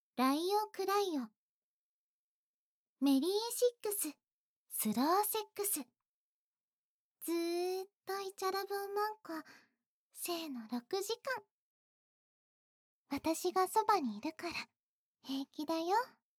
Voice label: タイトルコール; マナミ

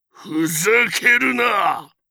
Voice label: ふざけるなっ！, 戦闘ボイス 中年・低音

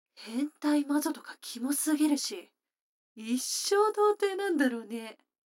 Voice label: 女の子台詞

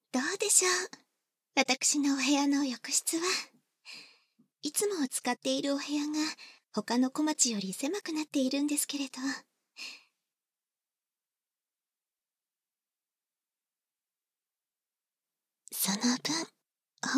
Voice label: 頭→シャンプー、頭マッサージ（バイノーラルマイク収録 効果音なし）

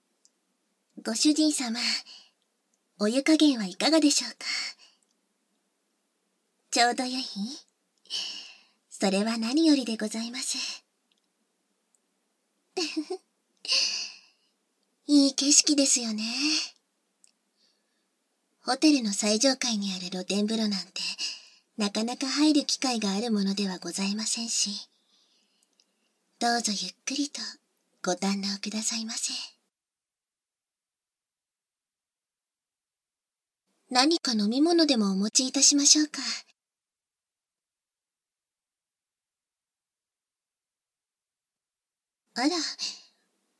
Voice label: お風呂で混浴（簡易モノラル編集 効果音なし）

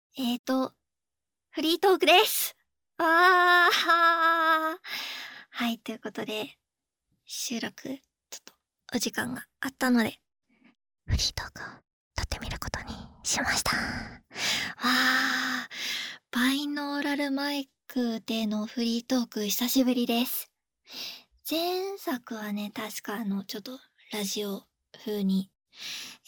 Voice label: フリートーク, ASMR

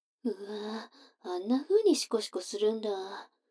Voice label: 女の子 台詞, ASMR

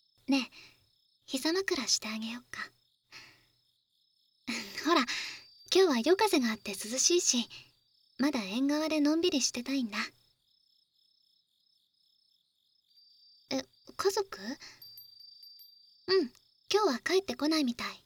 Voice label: 縁側で耳かき（右） 耳かき音無し

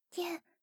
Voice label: 畳み掛けカウント, ASMR

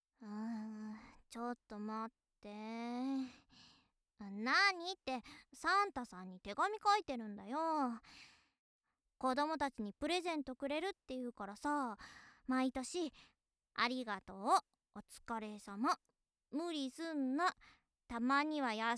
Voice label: 元気JK クリスマスだね

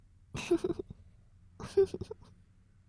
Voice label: 妹 台詞

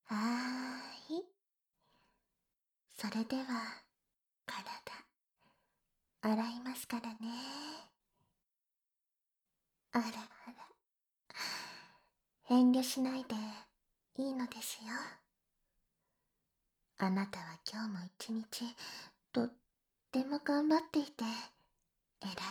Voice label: お風呂であわあわプレイ（バイノーラルマイク収録 効果音なし）, ASMR

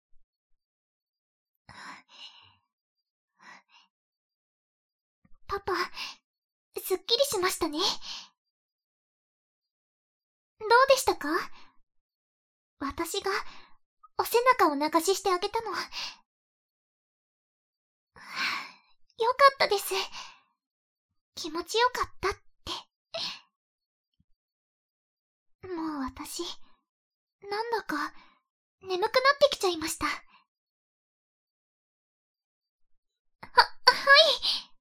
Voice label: ピロートーク 効果音あり

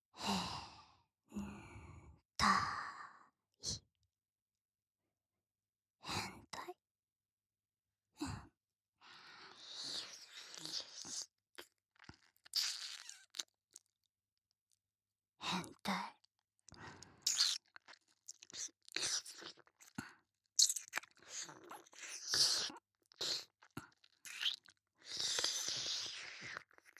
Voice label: 右耳, ASMR

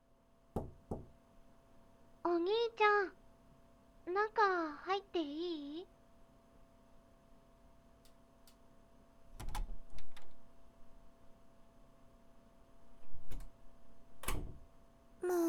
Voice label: 耳かきボイス; スぺ船様とのコラボ企画

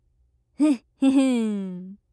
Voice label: 得意げ

